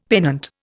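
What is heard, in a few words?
"pennant", Indian English; Dictionary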